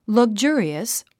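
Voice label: "luxurious", English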